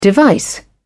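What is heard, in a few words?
"device", British English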